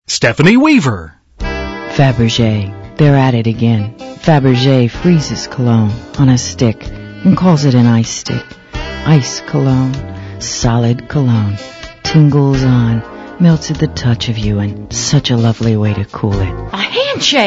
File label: female; Spotland Productions